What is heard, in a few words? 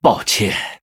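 Male, Chinese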